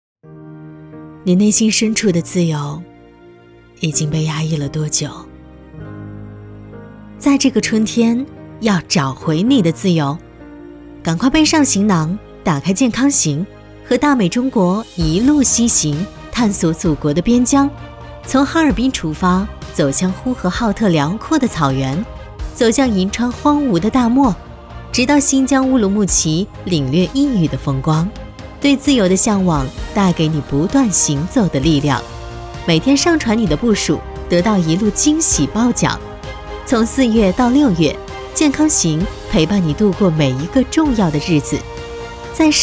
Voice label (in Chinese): 专业女声10, 品牌广告【知性优雅】; 中文